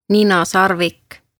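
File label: "ninasarvik", Estonian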